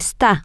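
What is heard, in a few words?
he she it is temporary, spanish, female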